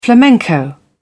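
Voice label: "flamenco", British English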